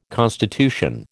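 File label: "constitution", American English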